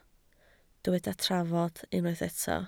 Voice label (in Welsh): "trafod", Welsh